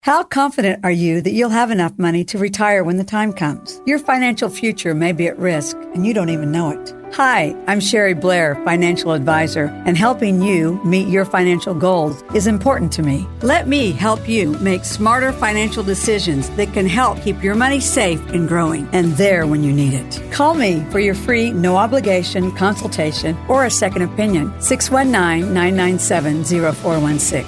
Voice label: Commercial